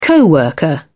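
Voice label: "co-worker", English, British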